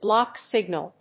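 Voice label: "block signal", English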